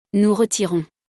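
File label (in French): "nous retirons", French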